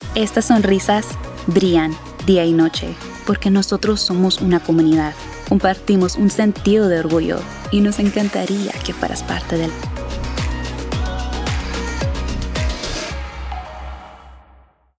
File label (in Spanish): HiringCampaign ESP; McDonalds